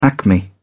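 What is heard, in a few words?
"acme", British English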